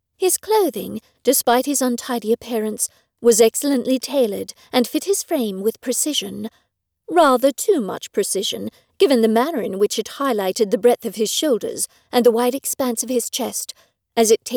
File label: UK Accent; A Rogue To Remember